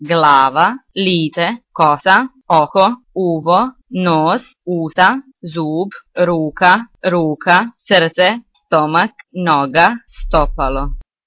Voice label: serbian body